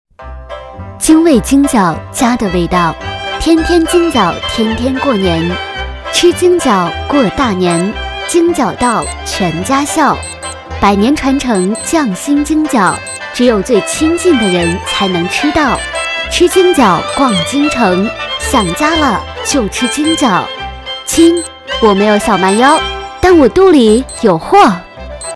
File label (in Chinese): 广告知性